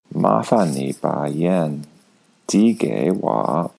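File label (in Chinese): "mafan ni ba yandi gei wo", Mandarin Chinese; 麻烦你把盐递给我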